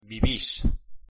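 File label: "vivis", Spanish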